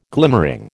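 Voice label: "glimmering", American English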